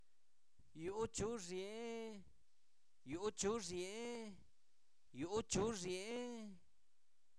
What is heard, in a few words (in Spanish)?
de alguien, Zapoteco, santo domingo albarradas; INALI; posesivos